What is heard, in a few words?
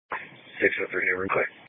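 Police Patrol Dispatch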